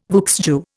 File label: "vieux jeu", Indian